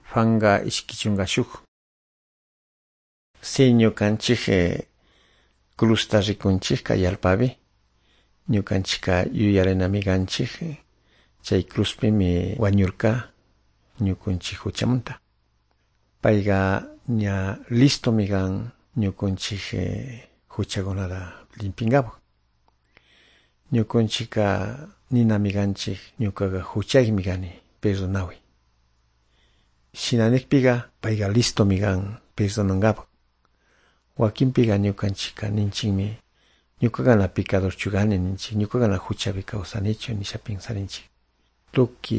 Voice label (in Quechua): good news quichua de calderon